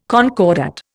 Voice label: "concordat", Indian

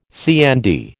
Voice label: "CND", American

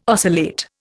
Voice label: "oscillate", Indian

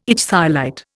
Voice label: "ichthyolite", Indian

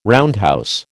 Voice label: "roundhouse", American

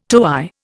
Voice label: "toey", Indian

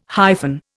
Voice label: "hyphen", Indian

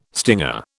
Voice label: "stinger", British

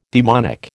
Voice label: "daemonic", American

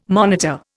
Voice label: "monitor", Indian

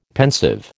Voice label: "pensive", American

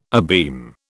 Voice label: "abeam", British

